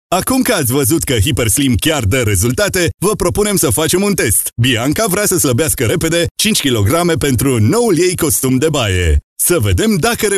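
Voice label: Romanian, male, commercial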